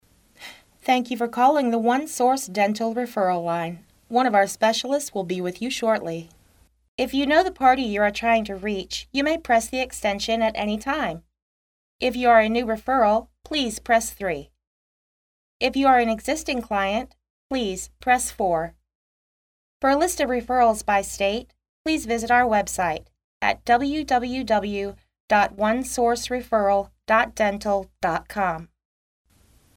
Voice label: Dental Phone Prompt Female